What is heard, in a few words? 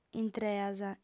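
"intreas", Sardinian, female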